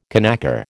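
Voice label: "conacre", American English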